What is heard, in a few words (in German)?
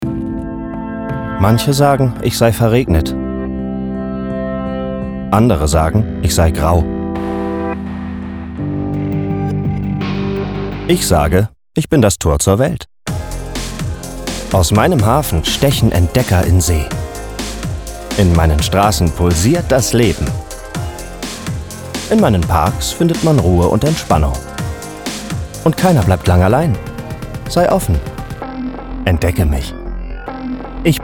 Imagefilm